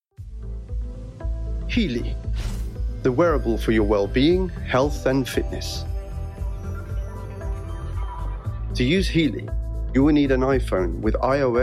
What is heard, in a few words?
product app Instructional; Uplifting instructional for App Product; healy